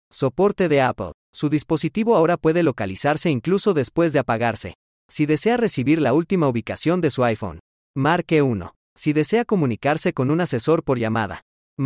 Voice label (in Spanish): SOPORTE, es man